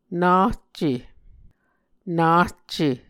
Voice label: "nahce", Muscogee